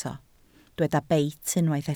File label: "beit", Welsh